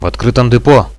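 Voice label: in the open depot, Russian, radio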